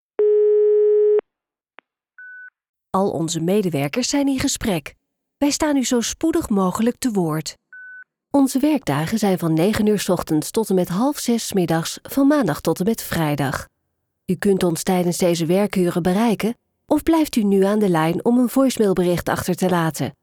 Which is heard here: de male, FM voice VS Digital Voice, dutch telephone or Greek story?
dutch telephone